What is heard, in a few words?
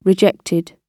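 "rejected", British English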